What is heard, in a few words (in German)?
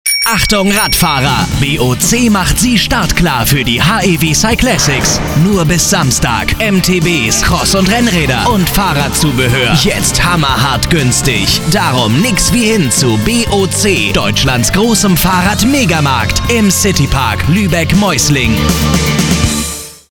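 off, sprecher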